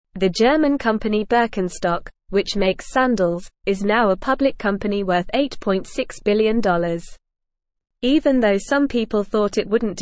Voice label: English Newsroom Beginner NORMAL Reading; Birkenstock A Popular Sandal Company Goes Public